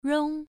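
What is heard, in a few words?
"rong", Mandarin Chinese syllable, first tone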